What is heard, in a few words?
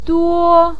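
"duo" first tone, Mandarin Chinese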